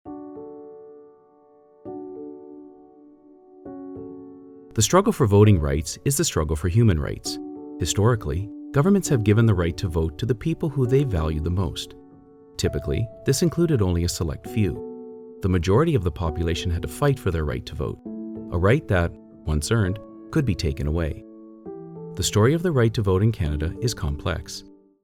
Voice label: male english canadian neutral north american accent; voting rights in canada; doc